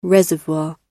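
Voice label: "reservoir", English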